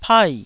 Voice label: "pai4", Taiwanese

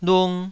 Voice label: "lung2", Taiwanese syllable

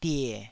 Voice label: "die3", taiwanese syllable

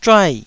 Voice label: "zhuai4", Taiwanese

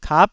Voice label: "kap9", taiwanese syllables

